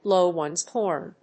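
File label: "blow ones horn", English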